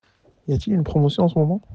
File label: voice message